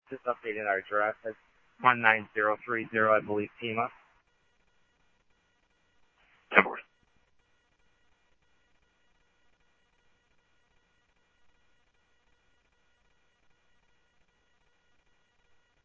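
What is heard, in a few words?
Police Department, scanner audio; H-Scottsdale; ScottsdalePolice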